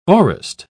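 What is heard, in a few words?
"aurist", British English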